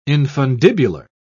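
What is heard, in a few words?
"infundibular", British English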